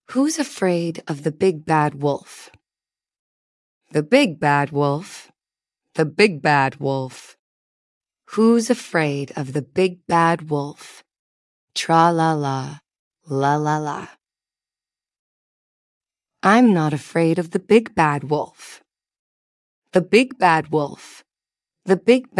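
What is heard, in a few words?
female US; Big Bad Wolf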